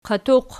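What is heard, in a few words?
"qatuq" nominative, Archi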